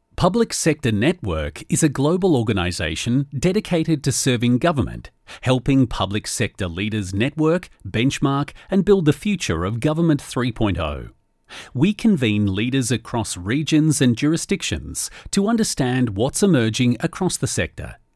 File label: instructional, Instructional YouTube Voice Over